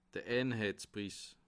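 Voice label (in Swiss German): "einheispriss", Bas Rhin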